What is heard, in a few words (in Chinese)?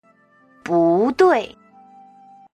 "budui", Chinese